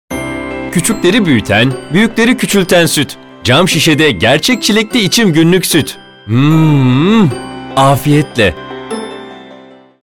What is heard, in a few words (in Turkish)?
reklam; icim